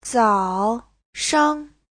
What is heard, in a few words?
"zao3 shang5", Chinese; Giáo trình Hán ngữ